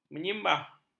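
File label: "menyimbah", Malay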